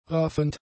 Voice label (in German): "raffend", German; adjectives